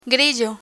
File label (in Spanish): "grillo", Spanish